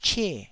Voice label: "che4", taiwanese